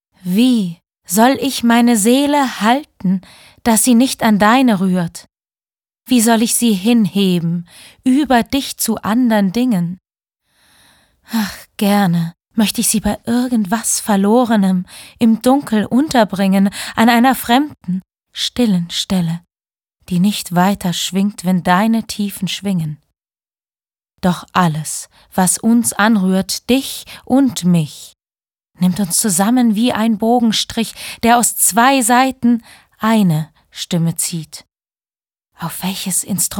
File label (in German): Poetisch